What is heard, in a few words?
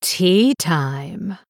Female Blood Elf; Thistle Tea